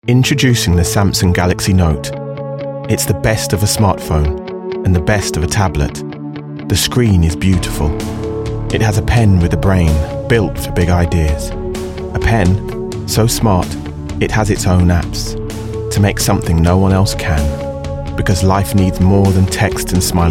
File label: Voiceovers UK Showreel